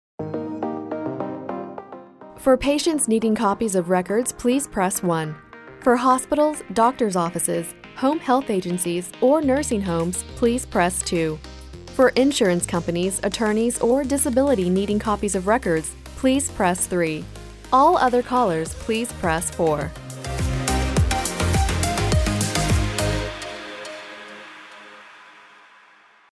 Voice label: On Hold Medical; Saint Luke's Health